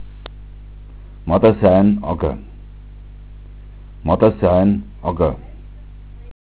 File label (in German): "maddasain", dialekt